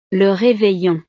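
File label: "le reveillon", French; navidad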